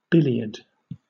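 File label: "billiard", British English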